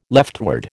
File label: "leftward", American